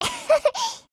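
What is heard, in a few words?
mood, Japanese; 人物：少女前线:Saiga 308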